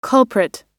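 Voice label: "culprit", American English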